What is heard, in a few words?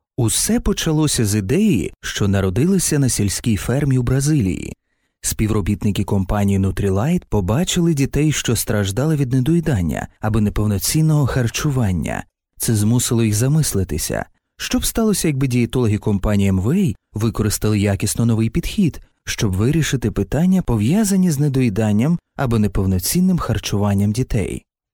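Ukrainian, male, Educational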